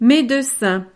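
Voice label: "medecin", French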